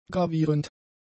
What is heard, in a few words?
"gravierend", German; adjectives